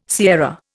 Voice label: "sierra", Indian

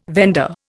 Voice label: "vendor", Indian

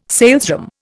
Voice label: "salesroom", Indian

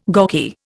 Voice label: "gawky", Indian

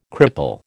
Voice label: "cripple", American

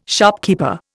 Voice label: "shopkeeper", Indian

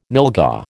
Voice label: "nylghau", American

